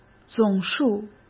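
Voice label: "总数", Mandarin Chinese; chinese-flashcards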